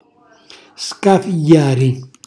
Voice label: "scafigghiari", Calabrese; cucina calabrese